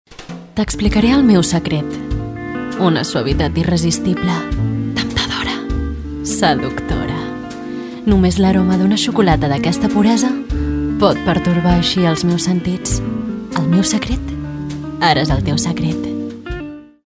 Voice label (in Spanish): Femenino